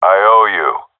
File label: thankful; vo; Counter Strike Model Pack; CS:GO; seal diver